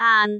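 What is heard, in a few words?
"aan2", Cantonese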